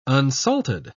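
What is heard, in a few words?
"unsalted", English, British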